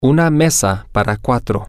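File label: a Table For Four, spanish, male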